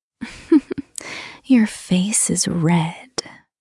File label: english, sexy conversation; japanese